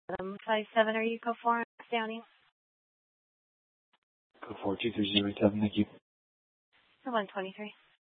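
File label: police department dispatch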